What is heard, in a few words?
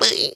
sad; Scrat